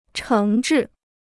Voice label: "诚挚", Chinese